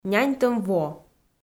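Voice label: "няньтӧм во" Komi-Zyrian, female; kpv audio dict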